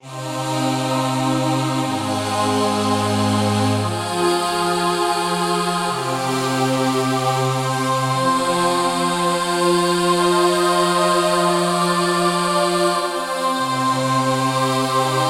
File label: Movie Voices; oasys assault